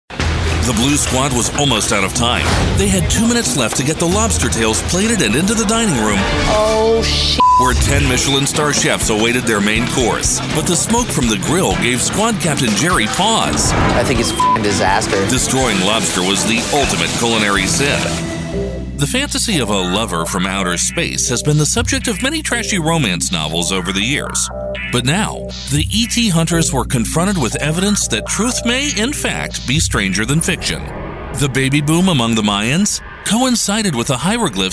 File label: TV Narration